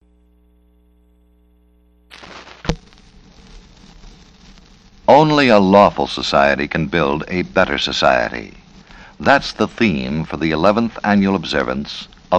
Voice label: Narrator Generic version